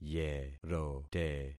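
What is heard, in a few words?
"yerote", concatenated syllables; Affixing experiment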